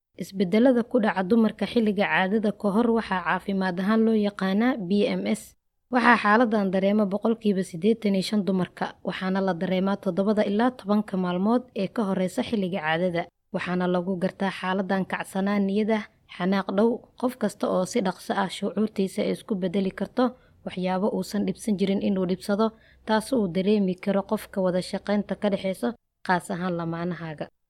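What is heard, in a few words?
Somali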